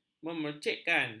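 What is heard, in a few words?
"memercikkan", Malay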